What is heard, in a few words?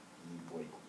"rock", Kibembe